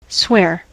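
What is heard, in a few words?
"swear", US English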